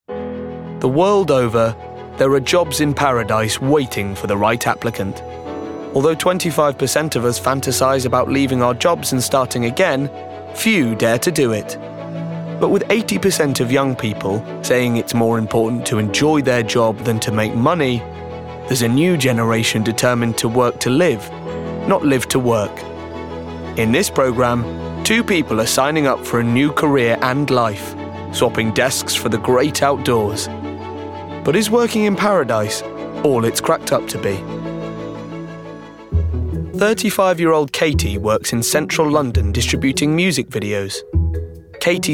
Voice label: Documentary

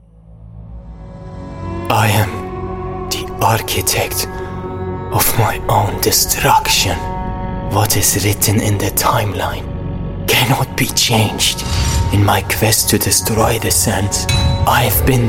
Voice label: English Middle Eastern

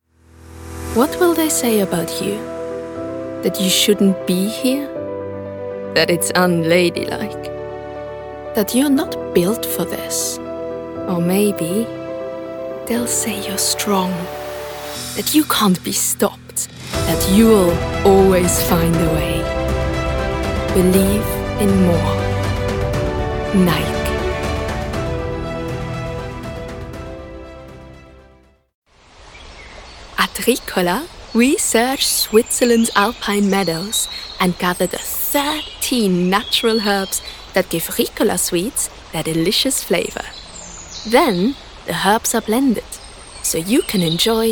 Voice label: English

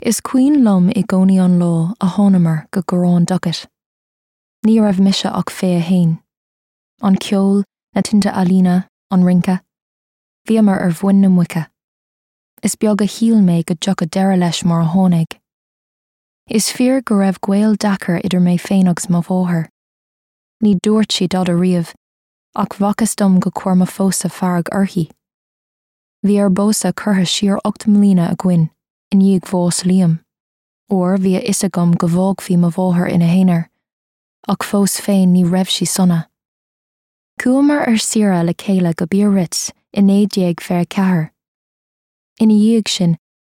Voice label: Gaelic

